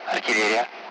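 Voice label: Speech Sound Russian Common Allied Flight; target-artillery; DCS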